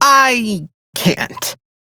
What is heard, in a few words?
Reject, Male; Dialog; Warframe; Railjack Crew; Perrin Sequence